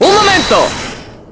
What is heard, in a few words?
Hold it, Spanish; Apollo